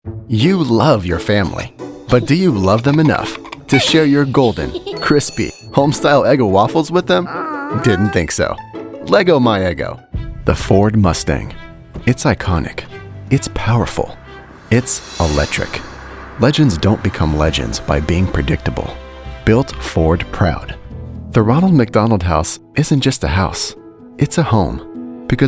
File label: Commercial